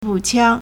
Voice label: "bu4qiang1", Chinese